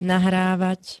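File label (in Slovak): "nahrávať", Slovak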